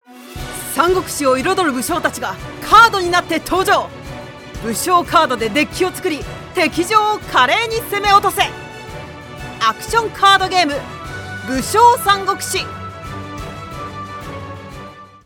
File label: japanese video games